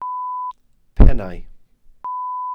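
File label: "pennau", Welsh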